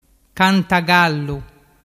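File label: "cantagallu", Vernazzese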